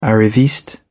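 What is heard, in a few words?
"arriviste", British English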